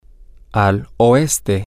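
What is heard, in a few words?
to the west, spanish, male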